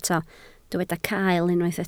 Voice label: "cael", Welsh

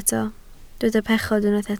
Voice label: "pechod", Welsh

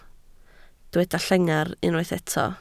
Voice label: "llengar", Welsh

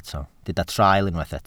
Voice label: "traul", Welsh

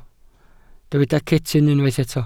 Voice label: "cetyn", Welsh

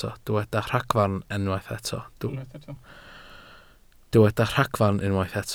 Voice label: "ragfarn", Welsh

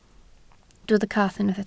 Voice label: "cath", Welsh

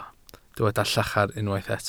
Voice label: "llachar", Welsh

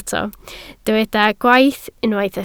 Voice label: "gwaith", Welsh

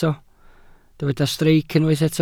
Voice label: "streic", welsh

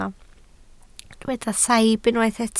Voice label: "saib", Welsh

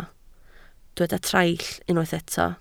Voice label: "traill", Welsh

